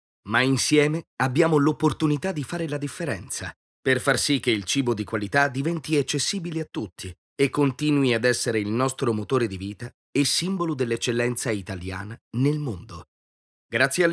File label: locutor italiano italian voiceover